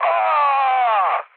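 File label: Serbian damaged pilot mood high, speech; War Thunder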